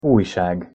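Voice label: "ujsag", Hungarian pronunciation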